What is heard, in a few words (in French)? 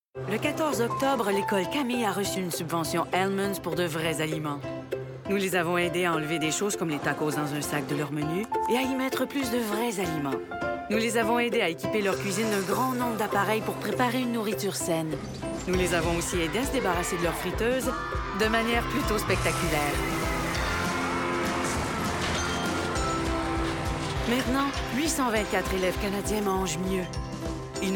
annonceur